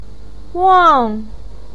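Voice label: "wang4" Chinese syllable, pinyin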